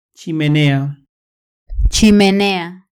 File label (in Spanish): "chimenea", Vocabulario